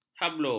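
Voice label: "hablur", Malay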